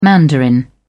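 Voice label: "mandarin", British English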